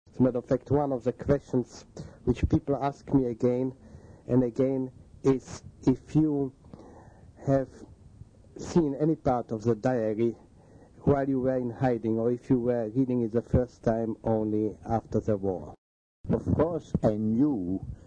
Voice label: Conversation; Diary Anne Frank